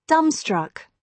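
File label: "dumbstruck", British English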